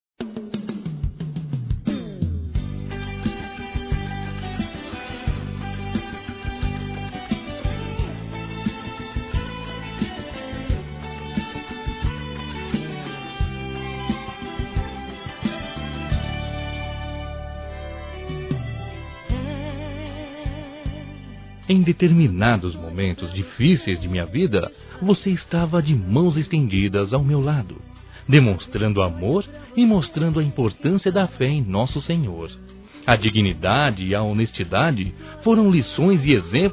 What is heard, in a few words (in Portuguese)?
Mensagem Pai Masculino